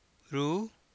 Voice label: "rúú", Copala Triqui

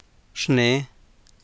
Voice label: "rne̱e", Copala Triqui

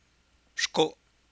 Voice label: "rco'", Copala Triqui

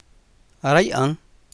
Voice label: "aráya'a̱nj", Copala Triqui

